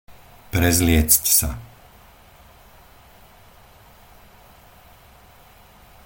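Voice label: "prezliecť sa", Slovak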